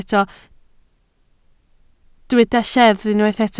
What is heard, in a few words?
"lleddf", Welsh